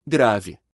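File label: "Grave", Portuguese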